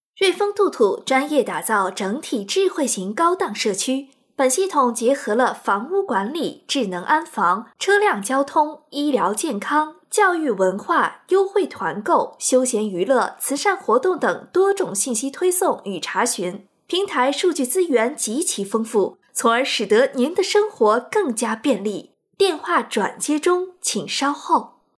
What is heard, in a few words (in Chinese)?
彩铃试音